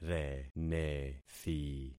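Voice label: "renethi", concatenated syllables; Affixing experiment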